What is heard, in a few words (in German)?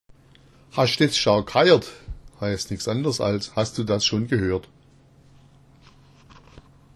ghairt, schwaebisch